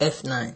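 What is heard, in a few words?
"F", Nigerian Pidgin, male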